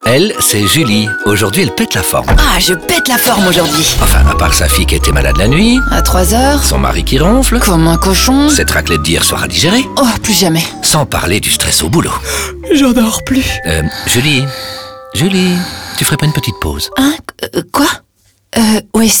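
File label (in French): fatigue au volant fem radio fr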